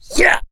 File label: melee swing, mechanic, survivor, voice